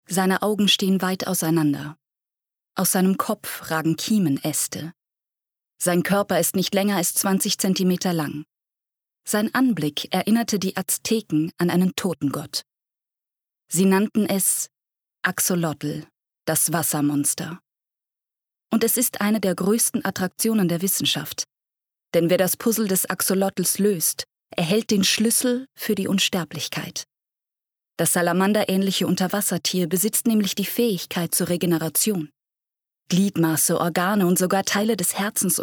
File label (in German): Dokumentarischer Text